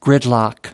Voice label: "gridlock", us